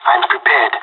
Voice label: in position, pirate; Counter Strike Model Pack; CS:GO